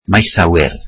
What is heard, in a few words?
welsh airport